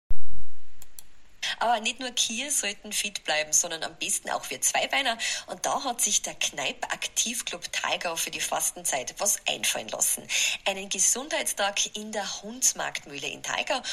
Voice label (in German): Audio Ansage Radio; ORF Radio Salzburg